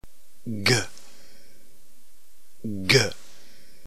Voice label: gamma, ntgreek phoneme

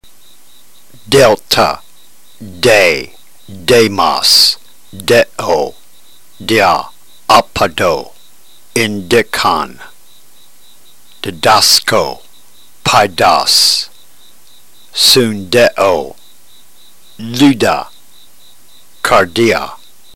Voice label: drill, ntgreek

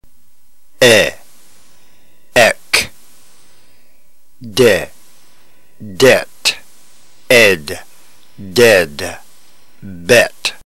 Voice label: drill, ntgreek

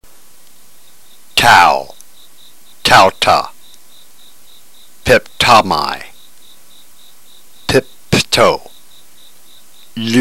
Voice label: drill, ntgreek

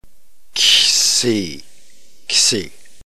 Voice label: xsi, greek alphabet

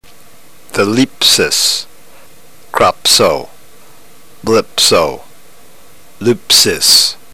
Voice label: drill, ntgreek

